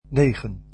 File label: "nine", Dutch